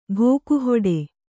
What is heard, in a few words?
"ಘೋಕುಹೊಡೆ", Kannada